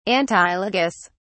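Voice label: "antilogous", British English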